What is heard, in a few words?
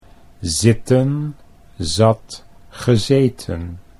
"zitten zat gezeten", Dutch